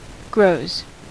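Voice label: "grows" US English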